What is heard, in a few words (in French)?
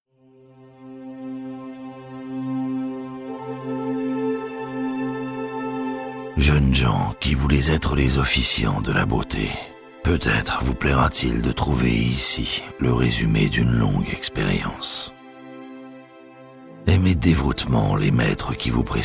narration; Testament Artistique Auguste Rodin